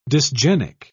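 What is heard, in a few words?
"dysgenic", British English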